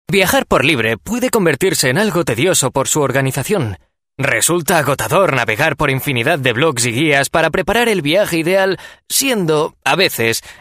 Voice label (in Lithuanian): Ispanu balsas, vyras